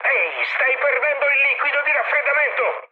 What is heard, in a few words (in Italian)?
damaged water, mood high, speech it; War Thunder